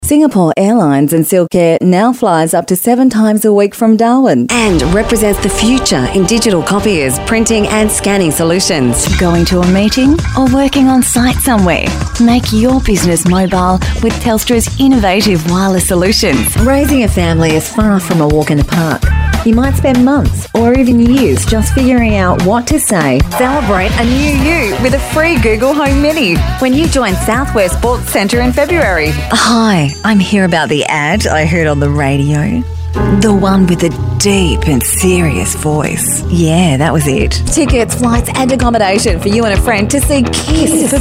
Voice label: female talent retail